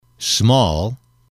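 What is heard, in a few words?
"small", English, male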